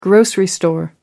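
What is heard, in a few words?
"grocery store", British English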